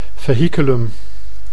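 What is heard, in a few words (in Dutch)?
"vehiculum", Dutch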